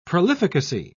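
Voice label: "prolificacy", British English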